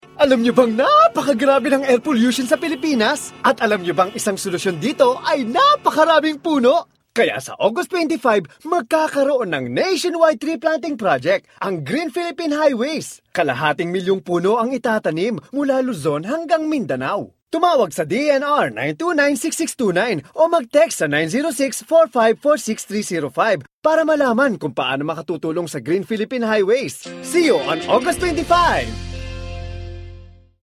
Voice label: tagalog e-learning

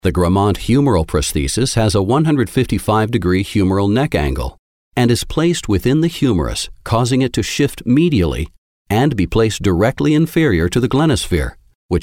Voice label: english american medical narration

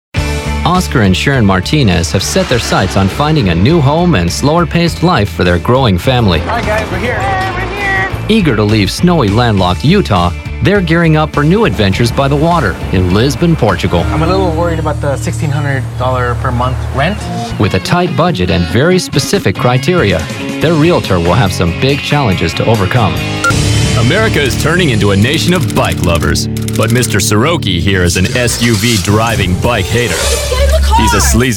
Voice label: english american narration

